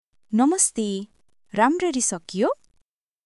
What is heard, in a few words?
Nepali, nepali greeting phrases